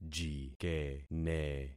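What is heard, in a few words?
"jikene", concatenated syllables; Affixing experiment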